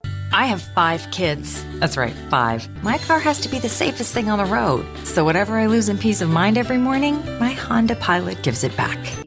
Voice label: Soccer Mom Minivan